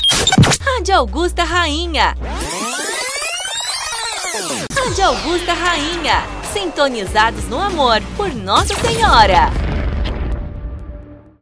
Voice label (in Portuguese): Radio Voz Feminina; Radio Augusta Rainha